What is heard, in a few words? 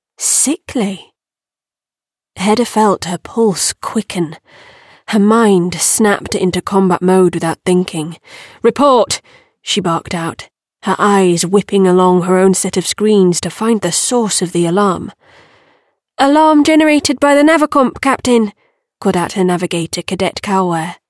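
Sci Fi female male dialogue R.P light Cockney suspenseful tense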